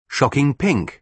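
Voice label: "shocking pink", British English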